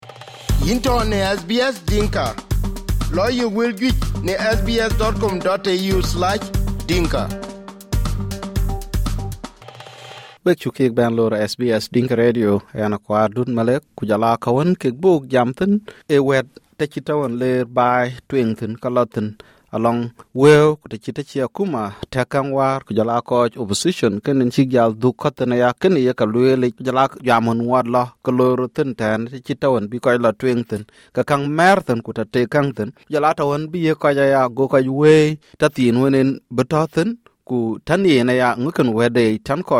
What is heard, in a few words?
dinka; Wël tuëŋ lëk tɛn kɔɔc wic bik jal ɣɔ̈ɔ̈c panden kaam tuëŋ.; SBS Dinka